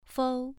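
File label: "fou", Mandarin Chinese, second tone, pronunciation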